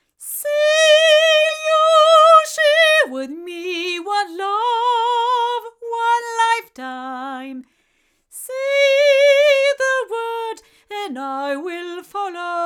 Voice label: Generis GC1 female

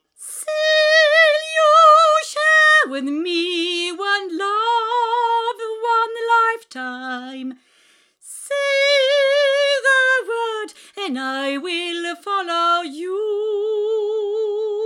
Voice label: Heil Sound PR 20 UT female

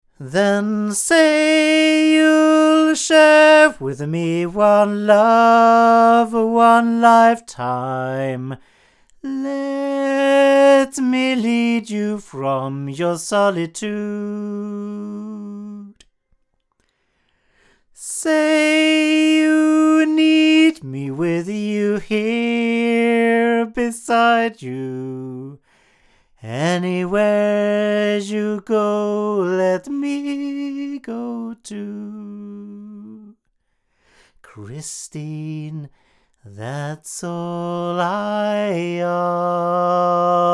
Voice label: JZ BlackHole SE male

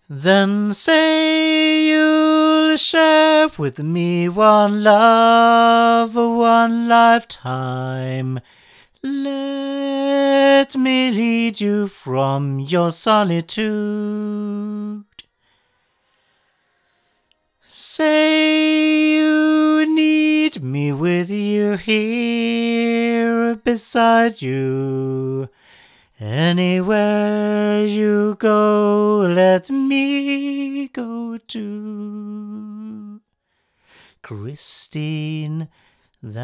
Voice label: PR 35 male; HeilSound

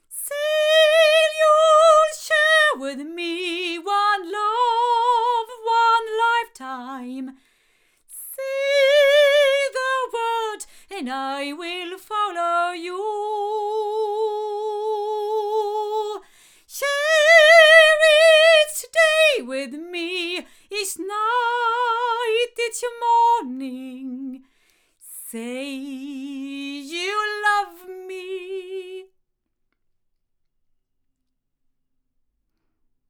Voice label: cardioid female; se electronics

